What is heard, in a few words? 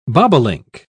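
"bobolink", British English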